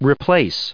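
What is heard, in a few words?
"replace", American English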